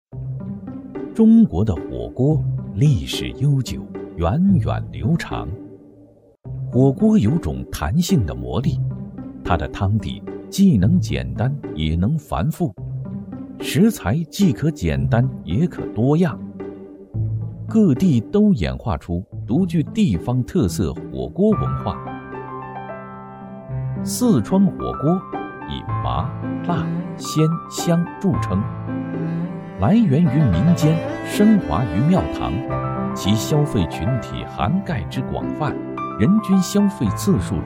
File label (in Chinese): 男, 记录片; 舌尖美食